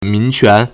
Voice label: "min2quan2", Chinese

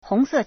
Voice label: "hong2 se4", Chinese

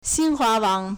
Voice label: "xin1hua2wang3", Chinese; 新华网 (新華網) xīnhuáwǎng; proper noun; Xinhua News Network; Media 媒体

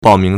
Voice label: "bao4ming2", Chinese

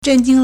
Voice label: "zhen4jing1", Chinese

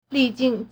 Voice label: "li4jing1", Chinese

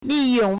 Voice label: "li4yong4", Chinese

